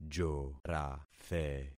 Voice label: "jorathe", concat syllables; Affixing experiment